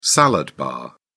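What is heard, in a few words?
"salad bar", British English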